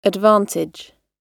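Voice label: "advantage", British English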